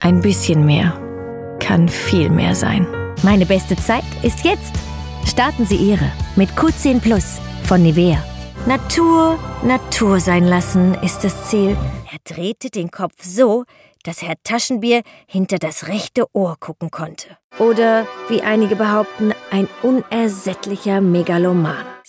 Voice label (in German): GER